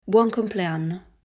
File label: Happy birthday in Italian